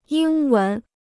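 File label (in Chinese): "英文" Mandarin Chinese; yīng wén; English (language)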